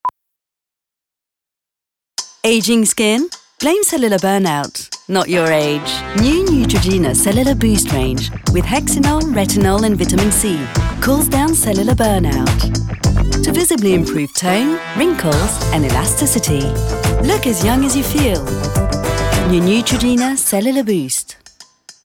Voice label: UK; NEUTROGENA CELLULAR BOOST